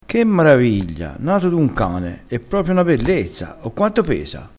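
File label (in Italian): "maraviglia", dialettale crespinese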